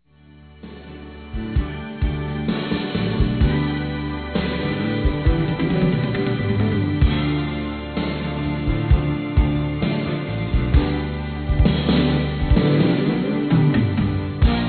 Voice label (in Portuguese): Neutro Masculino; Aniversários; Aniversários diversos